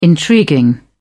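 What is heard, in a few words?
"intriguing", English, uk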